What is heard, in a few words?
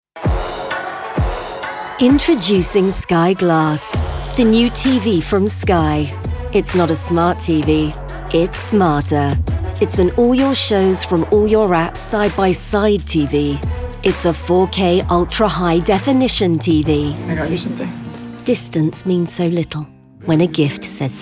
Commercial